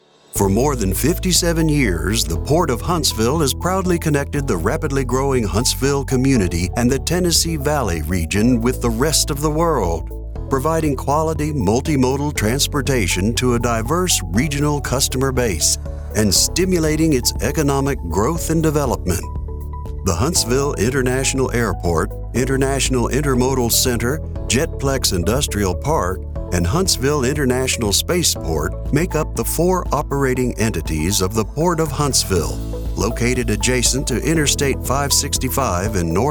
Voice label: english american narration